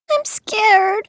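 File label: "i'm scared", English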